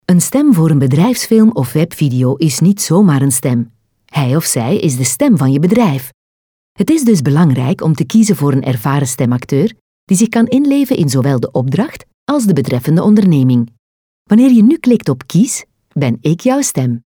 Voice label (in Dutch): BEDRIJFSFILM